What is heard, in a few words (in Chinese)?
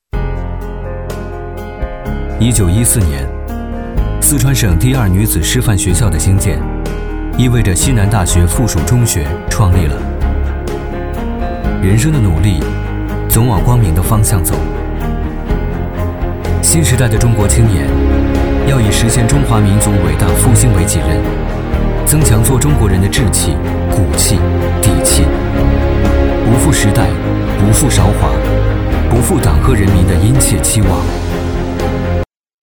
男 形象宣传片 大气醇厚; 男D18-浑厚有力; 大气浑厚